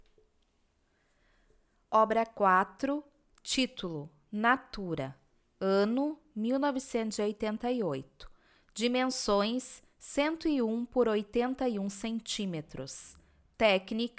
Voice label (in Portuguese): audiodescricao; Galeria Virtual